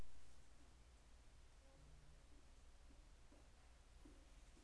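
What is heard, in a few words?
"low", spoken word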